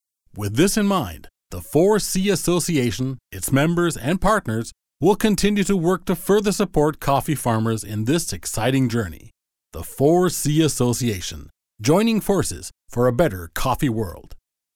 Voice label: association english; 4c